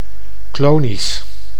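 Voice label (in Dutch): "clonisch", Dutch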